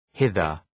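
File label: "hither", English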